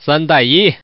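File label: Man, three with one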